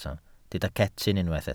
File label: "cetyn", Welsh